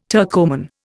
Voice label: "Turkoman", Indian